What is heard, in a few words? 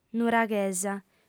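"nuraghesa", female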